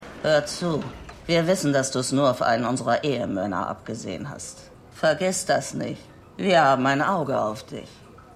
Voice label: Frau Damentoilette, Stimmproben; Dopesick

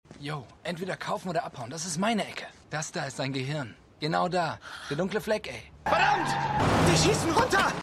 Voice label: Drogendealender Junge, Stimmproben; Shameless

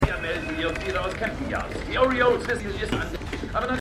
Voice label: TV Reporter; The Wire

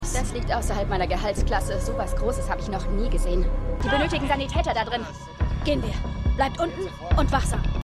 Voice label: Polizistin

